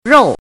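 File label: "rou", pinyin, fourth tone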